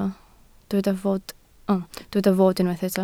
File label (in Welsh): "fod", Welsh